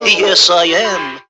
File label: yes i am, cartoon